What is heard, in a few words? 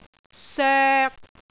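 "soek3", Cantonese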